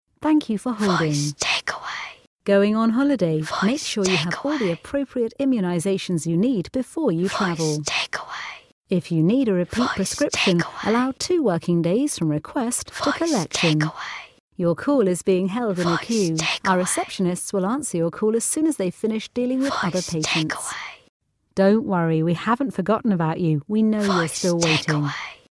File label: Doctors Rich Female